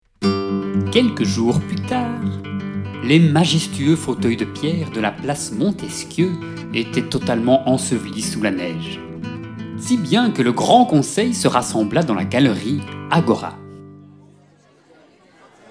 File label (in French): NARRATION